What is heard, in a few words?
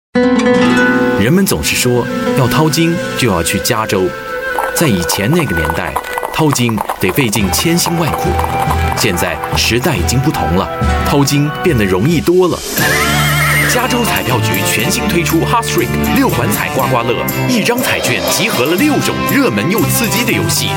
chinese voice over male commercial